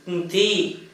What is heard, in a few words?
"nti", Gta, Dialect: Hill; hand